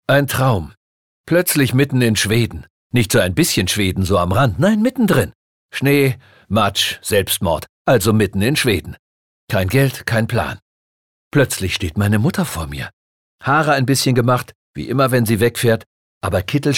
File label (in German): Schauspiel Dynamisch